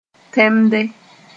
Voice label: "tẽmde", Moore

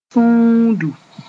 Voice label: "sũudu", Moore; rubber

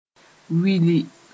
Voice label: "wili", Moore

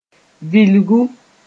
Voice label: "vilgu", moore

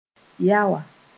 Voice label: "yaoa", moore

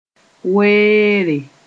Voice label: "weere", moore

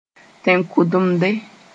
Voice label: "tẽn-kʋdemde", Moore; tradition

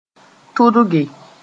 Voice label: "tʋdge", moore; unbutton

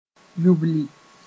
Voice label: "yubli", moore

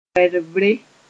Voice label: "werbre", moore

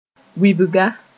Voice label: "wɩbga", moore

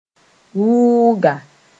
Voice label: "wʋʋga", moore; sheath

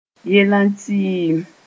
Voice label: "yellã tɩɩm", moore

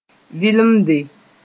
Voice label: "vɩlemde", moore

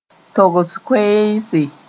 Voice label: "togs koeese", moore

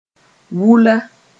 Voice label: "wula", moore; hot and cooped up

